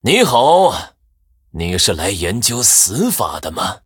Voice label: Male Human Greetings; zh